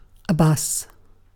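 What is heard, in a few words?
"bus", British English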